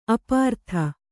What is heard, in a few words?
"ಅಪ್ರತಿ", Kannada